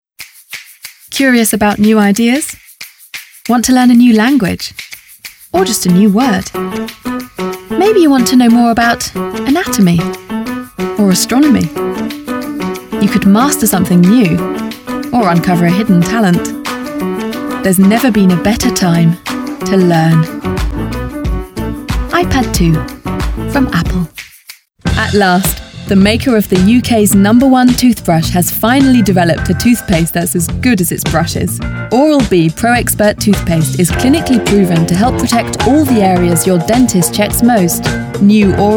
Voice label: advertising